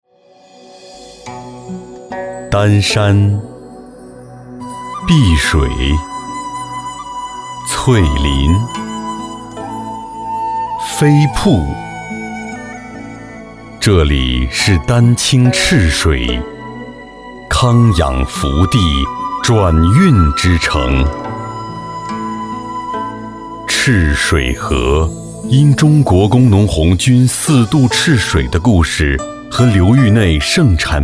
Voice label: 中文宣传片酒; 中文宣传片赤水湾; 浑厚

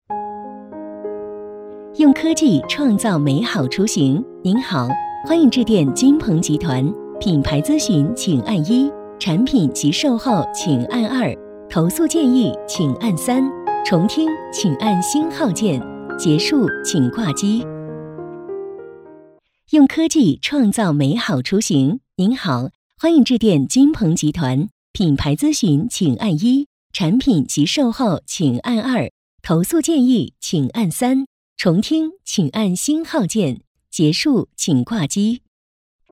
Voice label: 彩铃; 金彭集团

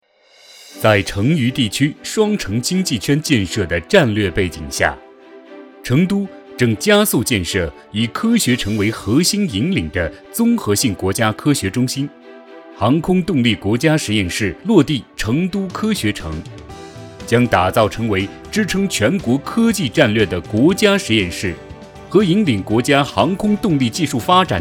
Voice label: 宣传片 实验室解说

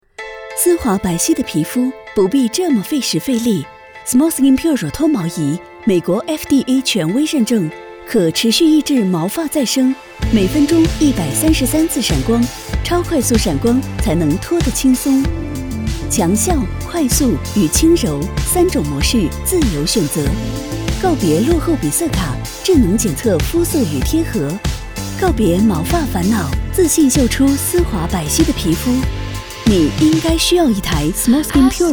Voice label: 广告脱毛仪

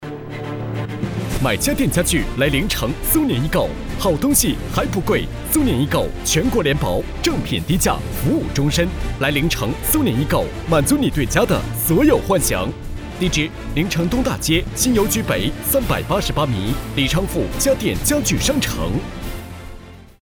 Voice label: 叫卖激情活力; 苏宁易购

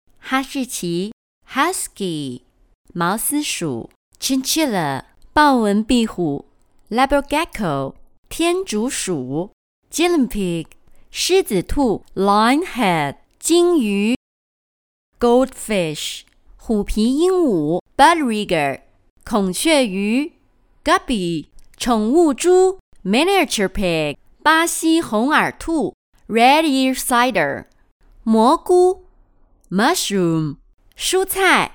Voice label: 宝宝学英文

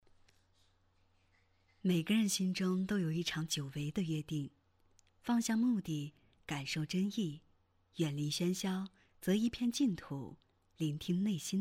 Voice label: 温暖积极

